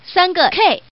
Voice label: Woman, tuple